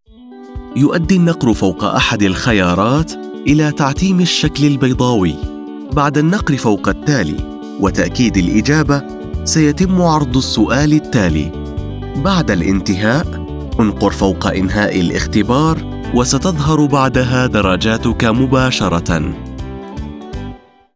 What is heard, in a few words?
Exam Instruction eLearning Formal Articulate Standard Arabic